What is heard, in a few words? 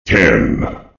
10 sec, time left, en; hl2dm; unreal